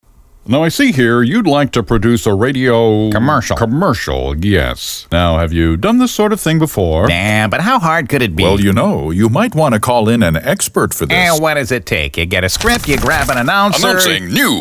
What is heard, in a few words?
Wacky Spots